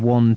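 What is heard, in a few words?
"one", English, GB, male; won, wən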